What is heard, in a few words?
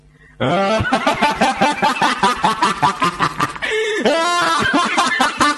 autotune